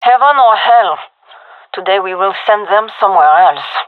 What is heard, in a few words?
round start, gendermerie fem; vo